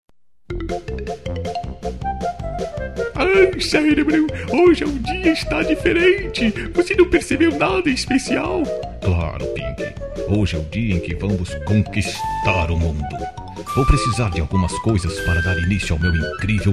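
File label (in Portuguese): Aniversário de Criança, male; Pink e Cérebro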